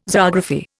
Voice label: "zoography", Indian